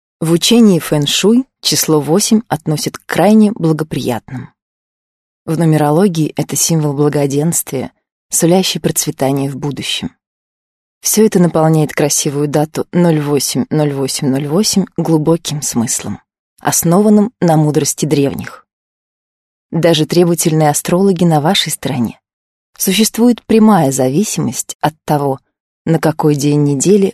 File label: russian female